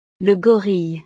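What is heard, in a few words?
"le gorille", French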